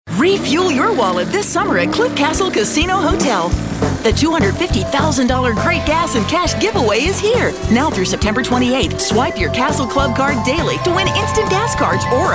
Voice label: Hard Sell

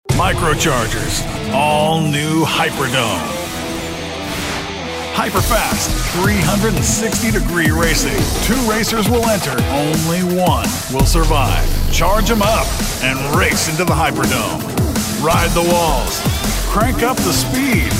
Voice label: Hard Sell

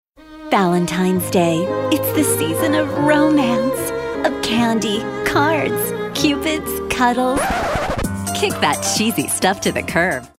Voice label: Tongue In Cheek

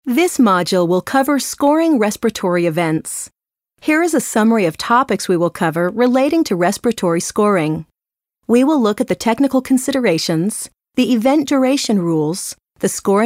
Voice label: eLearning

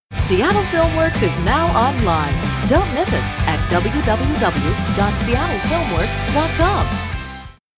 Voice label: Upbeat Perky

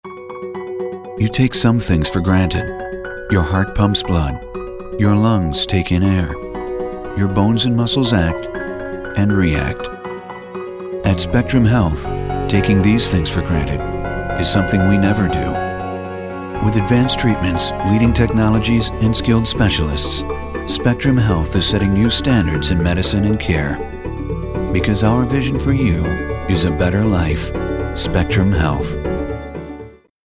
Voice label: Soft Sell

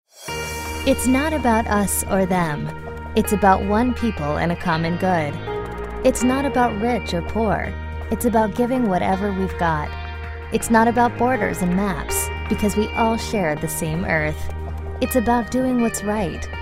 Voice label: Conversational

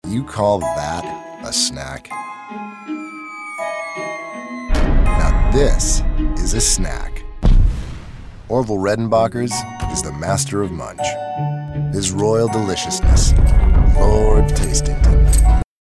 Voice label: Dry

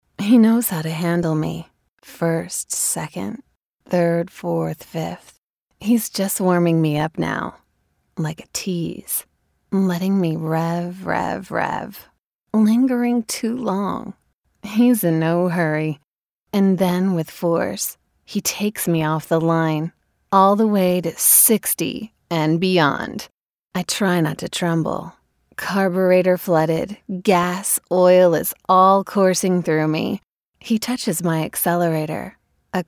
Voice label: Sexy Sultry

